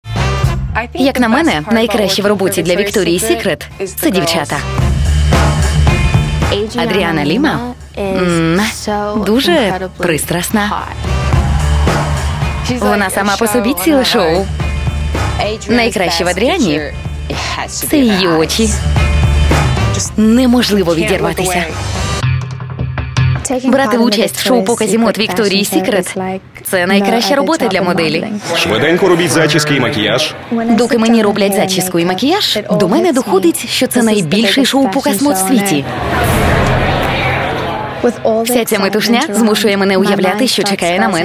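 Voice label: Ukrainian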